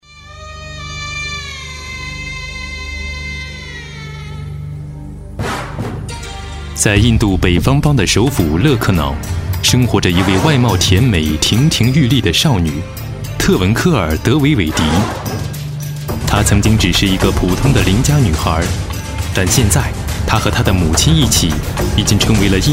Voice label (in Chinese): 男 纪录片; 泪血少女